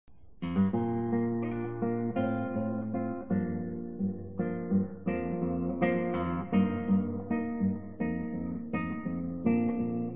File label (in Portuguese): Aniv. de Adolescente F